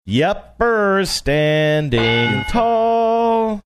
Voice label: saying yeppers standing tall sing songy